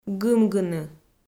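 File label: "гымгыны", Komi, female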